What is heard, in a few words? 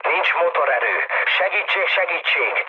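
Hungarian damaged engine mood low, speech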